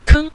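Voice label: "keng", Mandarin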